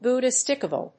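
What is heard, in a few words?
"Buddhistical", English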